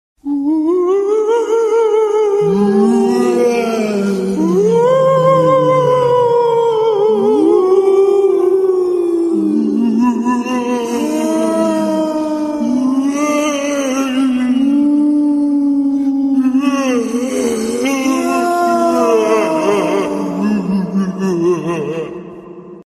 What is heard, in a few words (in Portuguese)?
toque de vozes fantasmas